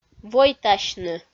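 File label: "войтасьны", Komi, female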